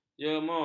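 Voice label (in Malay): "jemur", Malay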